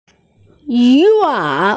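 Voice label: "yu wang" Chinese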